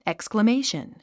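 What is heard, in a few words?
"exclamation", English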